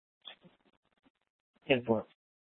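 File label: Sheriff, Parks, Sheriff radio scanner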